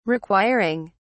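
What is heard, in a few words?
"requiring", British English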